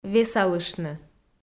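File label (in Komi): "весалыштны", Komi, female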